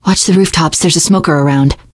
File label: heard smoker, teengirl; voice; source engine; left 4 dead 2; survivor